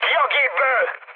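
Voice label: Hungarian bailout mood high, speech; War Thunder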